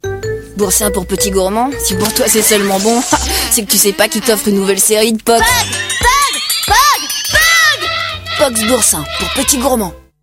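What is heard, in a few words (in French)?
voix spéciale boursin voix 2 garçons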